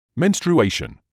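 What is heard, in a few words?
"menstruation", British English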